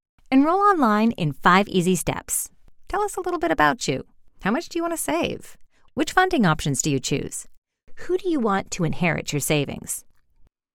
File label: Upbeat Conversational